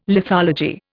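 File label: "lithology", Indian English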